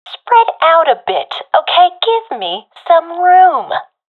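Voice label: request spreadout, professional female; vo; agents; Source Model Packs; Counter Strike Model Pack; CS2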